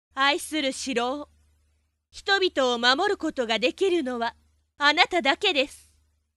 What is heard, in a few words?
beast haha